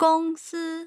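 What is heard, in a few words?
"gongsi", Mandarin Chinese tone pair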